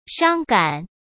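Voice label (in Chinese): "伤感", Mandarin Chinese; chinese-flashcards; hsk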